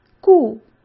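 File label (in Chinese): "故", Mandarin Chinese; chinese-flashcards; hsk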